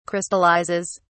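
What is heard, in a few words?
"crystallizes", British English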